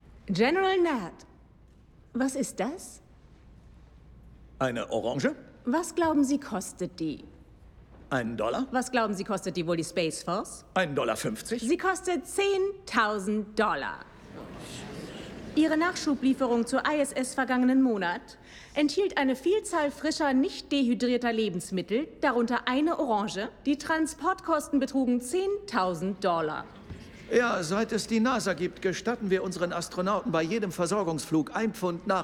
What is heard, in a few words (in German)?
Synchron; spaceforce